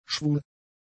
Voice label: "schwul", German; adjectives